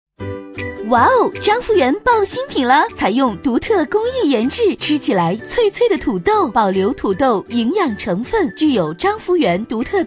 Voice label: 女4号促销; 章福元熟食

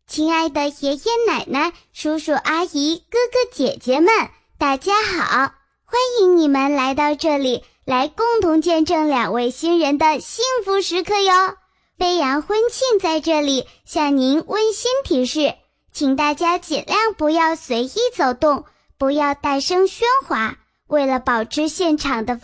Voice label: 女 童声 婚礼提示

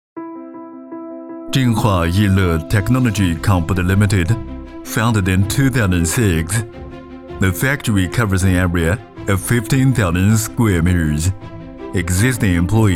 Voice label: 宣传片 自然流畅, 男 英文

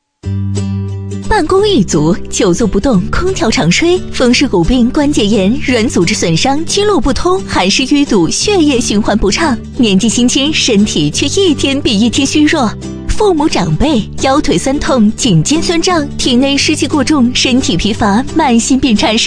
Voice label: 女; 飞碟说; 砭石贴

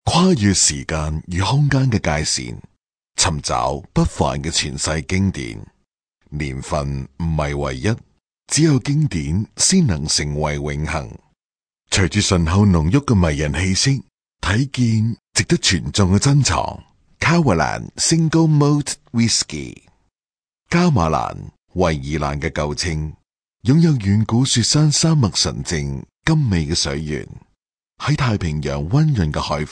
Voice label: 男 广告 品酒优雅

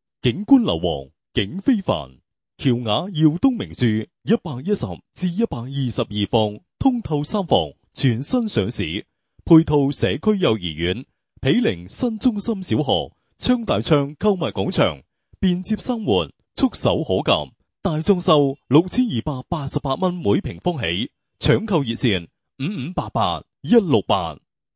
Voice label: 粤语男 地产广告

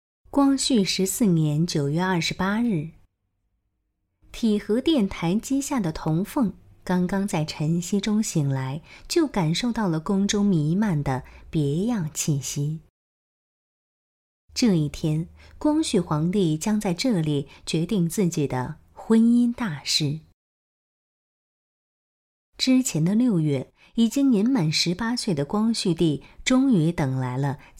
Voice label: 女 纪录片; 甄选后妃

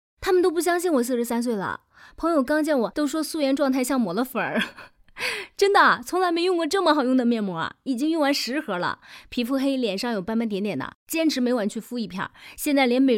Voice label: 女9号抖音视频配音; 素人面膜

